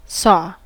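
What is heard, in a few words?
"saw", American English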